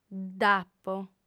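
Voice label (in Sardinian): "dd'apo", female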